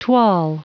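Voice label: "toile", English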